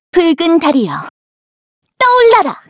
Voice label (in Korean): Vox Skill, kr; Xerah; kings raid